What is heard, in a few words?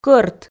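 "кӧрт", Komi, female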